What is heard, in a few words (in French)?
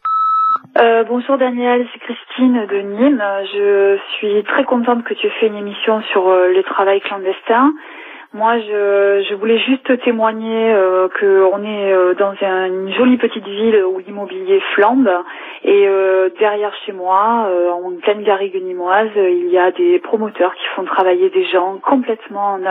Clandestins du batiment repondeur